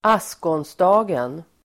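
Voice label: "askonsdagen", Swedish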